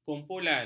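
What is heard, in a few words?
"kumpulan", Malay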